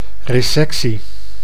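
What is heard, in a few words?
"resectie", Dutch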